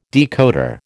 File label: "decoder", American